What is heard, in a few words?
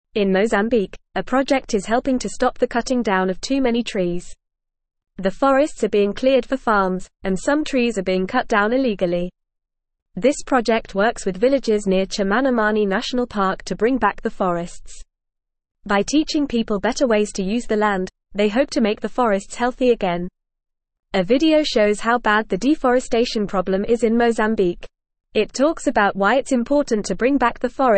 English FAST Reading; Helping Mozambiques Forests Bringing Back the Trees